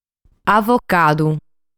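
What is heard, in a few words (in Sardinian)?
"Avocadu", Sardinian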